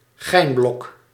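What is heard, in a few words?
"gijnblok", Dutch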